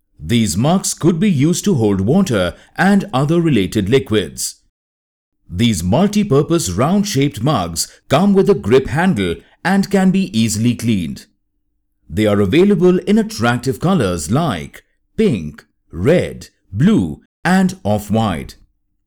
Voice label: elearning Retail